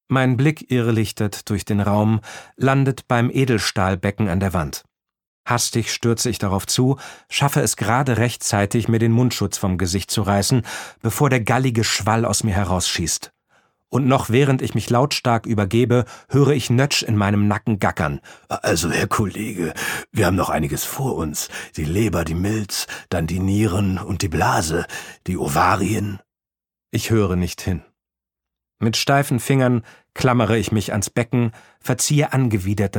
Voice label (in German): Hoerbuch; Faber